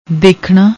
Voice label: "dekhna", Punjabi